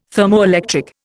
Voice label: "thermoelectric", Indian